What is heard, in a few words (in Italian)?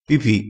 "pipi", crespinese, vocabolario dialettale